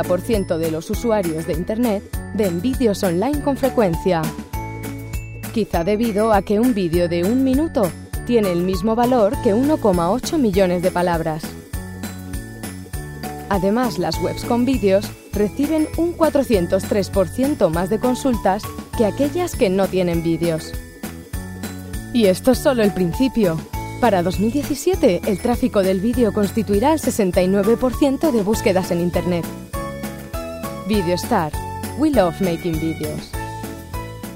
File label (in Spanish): ES Corporativo YouTube